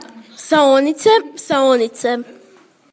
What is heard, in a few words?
Sleigh, Croatian; Saonice